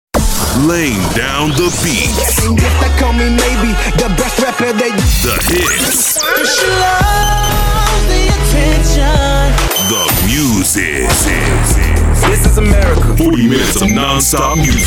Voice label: men radio imaging; Deep, smooth voice. Conversational and cool, with a talent for animation and gaming.